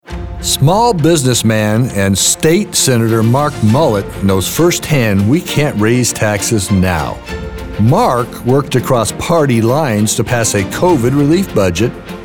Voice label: Political Positive